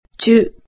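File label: "chu", Japanese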